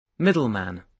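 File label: "middleman", British English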